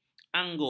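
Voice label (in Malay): "angguk", Malay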